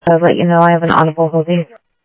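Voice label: Sheriff East County, scanner audio trunk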